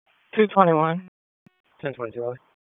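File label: Police Northeast District Dispatch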